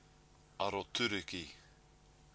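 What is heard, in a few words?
"aroturuki", Maori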